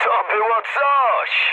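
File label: trick mood med, speech Polish; War Thunder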